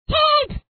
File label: Man Help; hammer